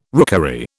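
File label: "rookery", British English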